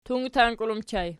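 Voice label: "tungtank" ergative plural, Archi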